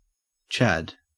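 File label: "chad", Australian English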